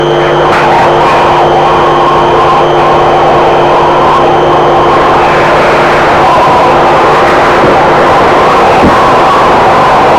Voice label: speak thinking sub vocal speech recorded unprocessed